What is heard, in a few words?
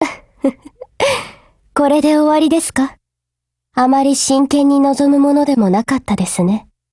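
WIN, Japanese, Voice; GF Alarm; M1918Mod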